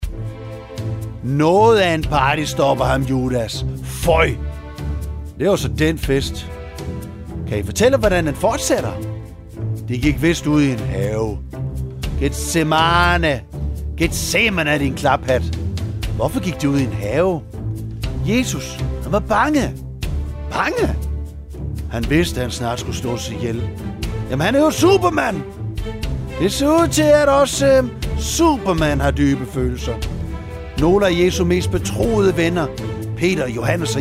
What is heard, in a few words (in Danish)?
drama med speak; 6 Getsemane